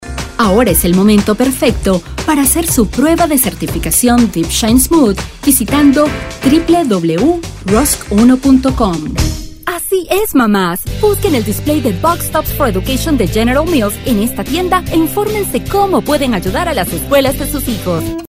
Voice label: locutora venezolana venezuelan voiceover; Venezuelan female voiceover